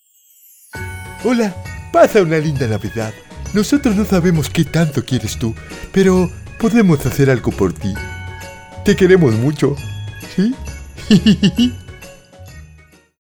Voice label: Christmas Elf - Spanish

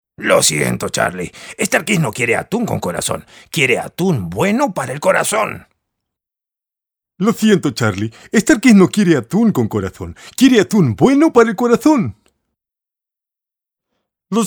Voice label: Spanish - Characters

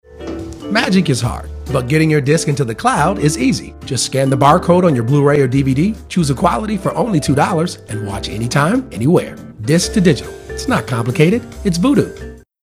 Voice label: TV Spot; Movie Rental

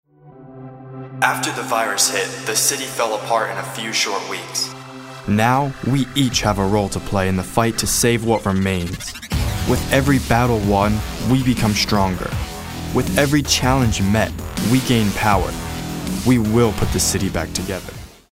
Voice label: edgy

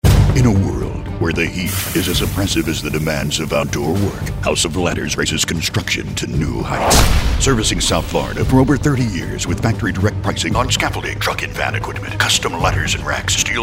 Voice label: movie trailer

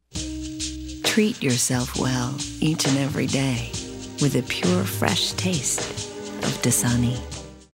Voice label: cool jazzy

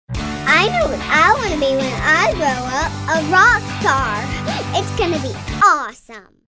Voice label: perky

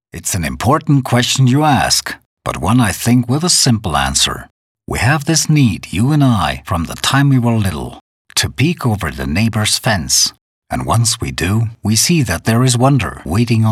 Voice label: Commercial Travel Agency

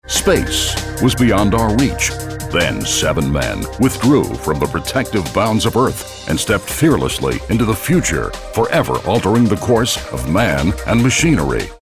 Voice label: storyteller

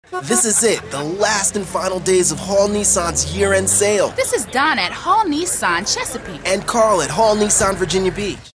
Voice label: upbeat

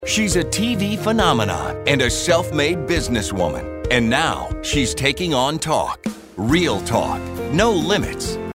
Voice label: TV Promo; Light

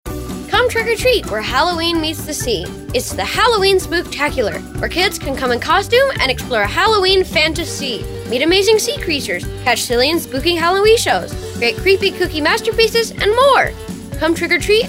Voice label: upbeat

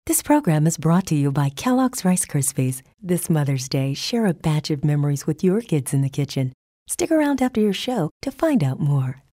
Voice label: narrative

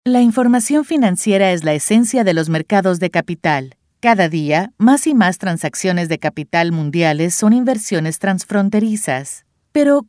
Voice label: Informative

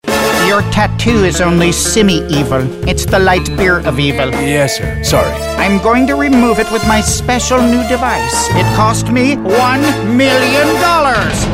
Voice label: character

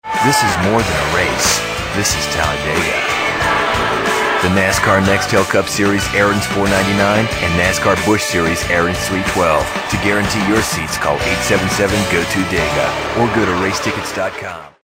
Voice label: anti announcer

